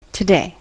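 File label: "today", US English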